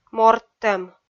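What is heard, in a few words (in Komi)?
"морттӧм", Komi, female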